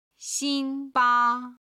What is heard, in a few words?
"xin1 ba1", Chinese